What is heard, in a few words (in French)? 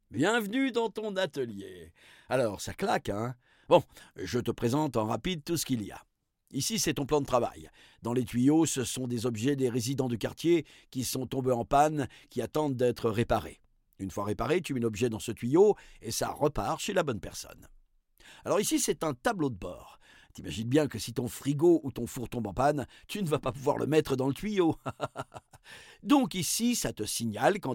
narrateur; présentation atelier; La Fabrik